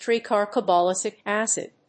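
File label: "Tricarboxylic Acid", English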